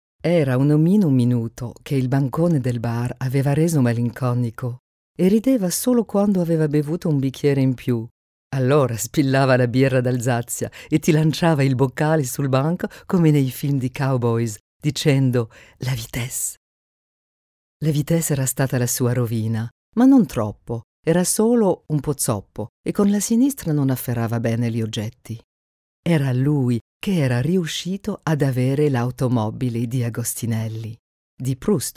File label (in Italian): Italien; A. Tabucchi